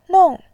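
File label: "nong", Mandarin, tone 4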